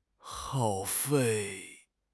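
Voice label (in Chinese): "耗费", Chinese; HSK6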